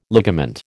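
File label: "ligament", American English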